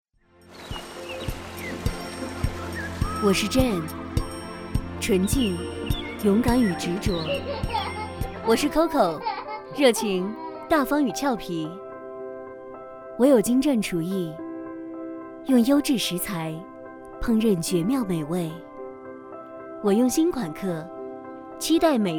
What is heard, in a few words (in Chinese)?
广告珍藏酒品质感